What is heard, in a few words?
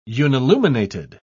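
"unilluminated", British English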